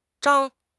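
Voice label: "Zhang1", Mandarin Chinese; HSK3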